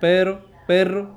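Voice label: "pero perro", Spanish; Kuba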